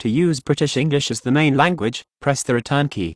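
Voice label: Voice Over Instructions English Great Britain; Language Chooser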